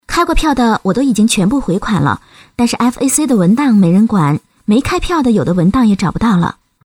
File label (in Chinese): 动画 角色 客户经理角色